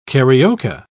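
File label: "carioca", British English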